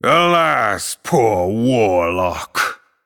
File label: Male NightElf TRIGGER Skull of Guldan